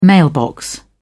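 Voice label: "mailbox", British English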